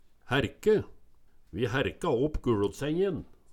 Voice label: "hærke", Numedalsmål; Eksempel på bruk Vi hærka opp guLotsengjin

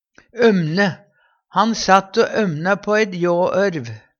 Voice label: ømne, Numedalsmål

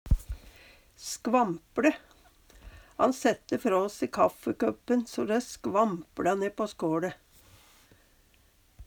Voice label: "skvampLe", Numedalsmål; Verb

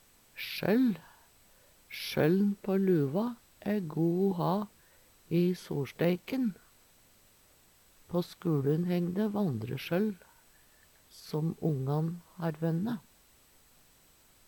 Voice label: sjoll, Numedalsmål; sjøll; Eksempel på bruk Sjøll`n på luva va go o ha i soLsteiken.

